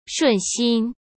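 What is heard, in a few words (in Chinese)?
"顺心", Mandarin Chinese; hsk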